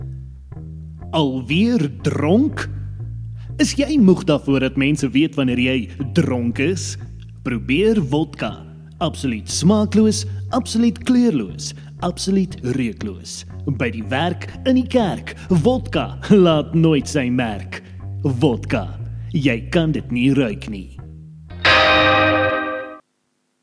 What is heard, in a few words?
Vodka Ad